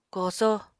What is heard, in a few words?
"koso", Apatani